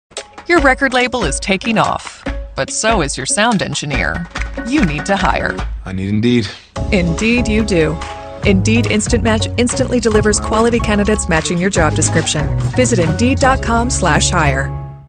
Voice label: TV Commercial